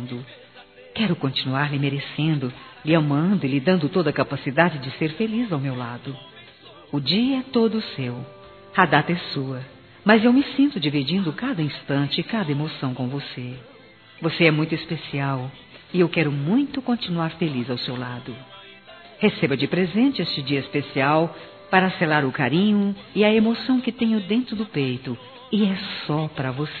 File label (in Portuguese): Aniversário Sertanejo Declaração Amor Feminino; ANIVERSARIO romantico